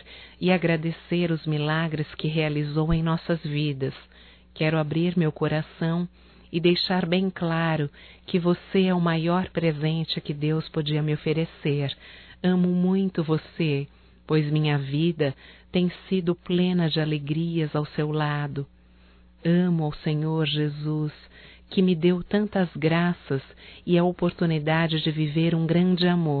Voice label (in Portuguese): NATAL ROMANTICO; Natal e ano novo; fem; Telemensagens 2017